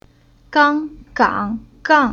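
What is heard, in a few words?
"Gang", Chinese syllable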